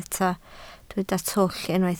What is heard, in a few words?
"twll", Welsh